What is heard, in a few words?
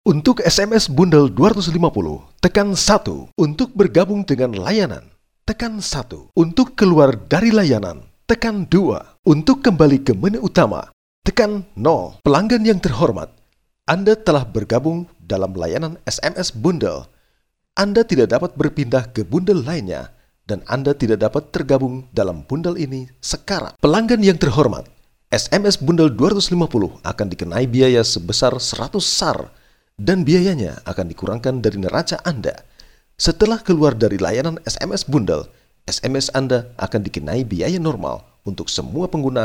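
Bahasa Indonesia male voice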